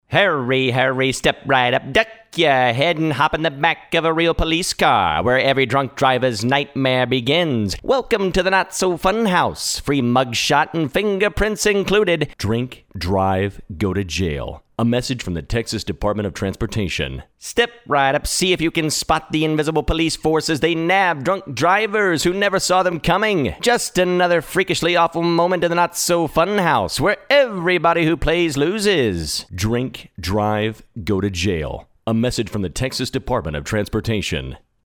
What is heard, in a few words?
Carnival Barker